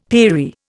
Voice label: "peri", Indian; Dictionary

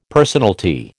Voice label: "personalty", American

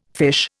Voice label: "fiche", Indian

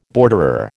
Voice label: "borderer", American

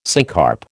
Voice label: "syncarp", American

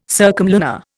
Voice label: "circumlunar", Indian

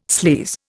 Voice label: "sleaze", Indian

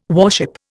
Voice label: "warship", Indian

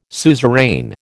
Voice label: "suzerain", American

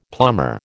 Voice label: "plumber", American

